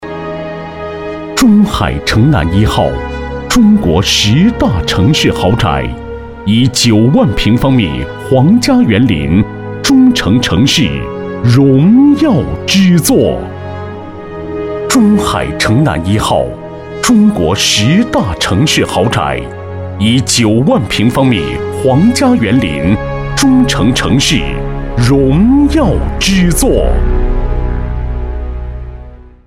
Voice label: 男25, 国语男声配音; 地产; 中海城

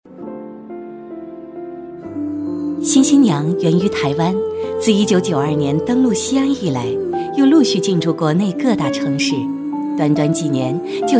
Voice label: 女 舒缓广告类, 国语女声配音

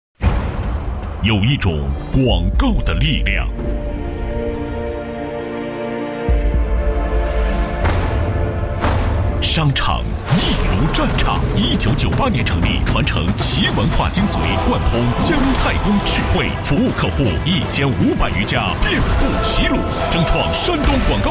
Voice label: 男 广告 大气, 国语男声配音; 汇利通合成

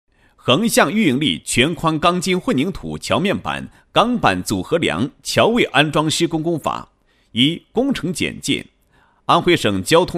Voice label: 男 工程施工; 横向预应力全宽钢筋混凝土桥面板钢板组合

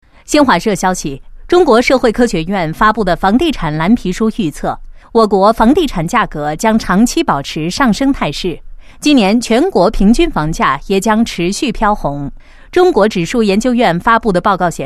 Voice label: 女 新闻; 国语女声配音; 大气专题; 微电影折翼的蚂蚁中新闻配音

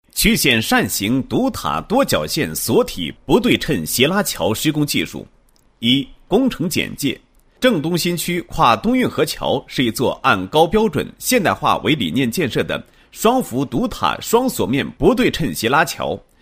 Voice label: 男 解说; 曲线扇形独塔多绞线索体不对称斜拉桥施工技术

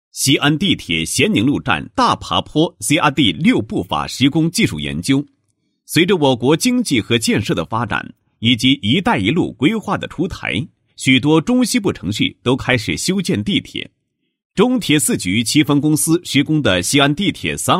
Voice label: 男 解说; 西安地铁咸宁路法施工技术研究

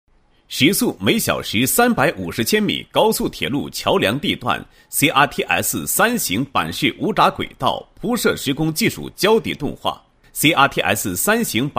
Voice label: 男 解说 无砟轨道铺设; 配音案例

